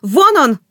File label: search, woman; Stalker Anomaly